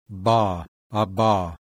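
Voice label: voiced bilabial plosive; IPA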